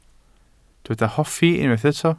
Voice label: "hoffi", Welsh

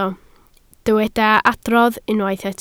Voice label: "adrodd", Welsh

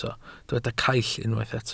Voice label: "caill", Welsh

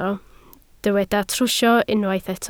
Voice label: "trwsio" Welsh

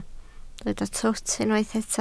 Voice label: "twt", Welsh

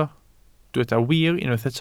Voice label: "wir" Welsh

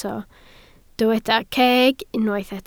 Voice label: "ceg", Welsh

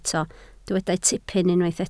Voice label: "tipyn", Welsh

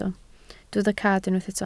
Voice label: "cad", Welsh

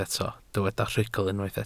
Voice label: "rhugl", Welsh

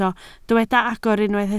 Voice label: "agor", Welsh

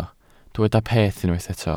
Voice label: "peth" Welsh

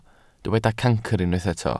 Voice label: "cancr", Welsh

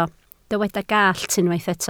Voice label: "gallt", Welsh

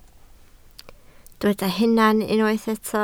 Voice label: "hunan", Welsh

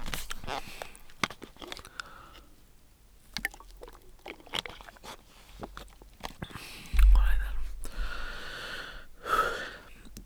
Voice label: "betws", Welsh

